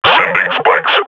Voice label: firing, combine grunt; Half Life Model Pack

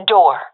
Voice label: door, professional fem; player vo; Counter Strike Model Pack; CS:GO

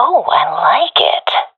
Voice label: agree, professional fem; vo; Counter Strike Model Pack; CS:GO

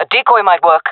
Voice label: request decoy, jungle fem; vo; Counter Strike Model Pack; CS:GO

